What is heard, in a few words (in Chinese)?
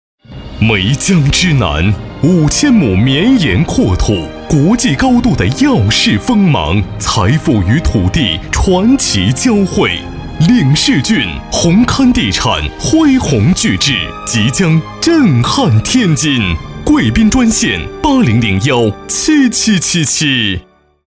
男 广告; 领世郡